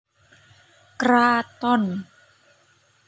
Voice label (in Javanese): "Kraton", Basa Jawa; Contoh pengucapan